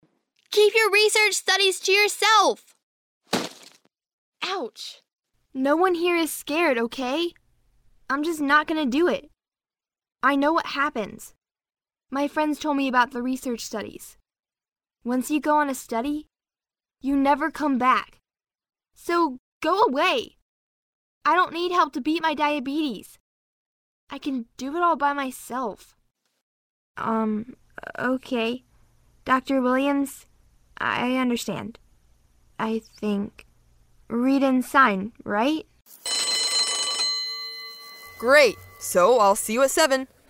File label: Character